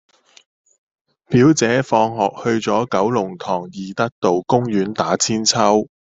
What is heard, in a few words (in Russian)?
Гонконгский; 的士喺跑馬地禮頓里兜左幾個圈